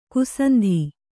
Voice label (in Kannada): "ಕ್ಷಾಂತ", Kannada